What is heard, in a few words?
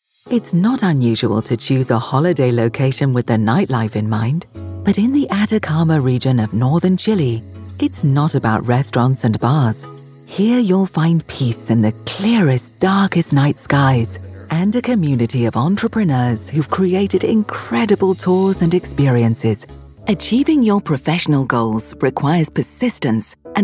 corporate narration explainer